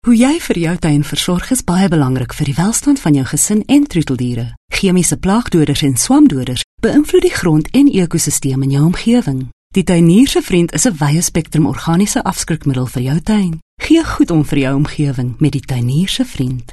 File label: Afrikaans